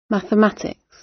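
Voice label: "mathematics", British; Study, education, research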